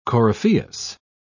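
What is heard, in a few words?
"coryphaeus", British English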